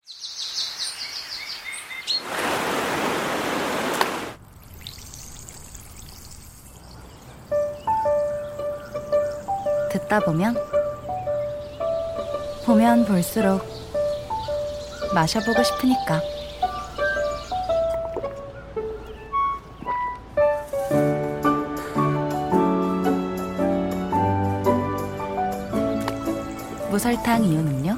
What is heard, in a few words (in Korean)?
어린 목소리; 요헤미티